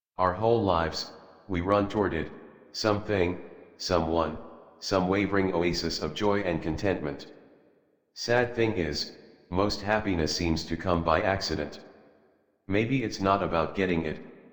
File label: Happy Adult, en, Dialog; Warframe; Duviri Paradox Quest